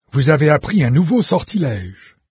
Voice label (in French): new spell, VOICES fr NARRATOR; Fate